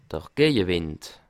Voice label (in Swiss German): "geje", alsace